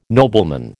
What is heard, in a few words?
"nobleman", American